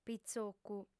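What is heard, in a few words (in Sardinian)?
"pitzocu", female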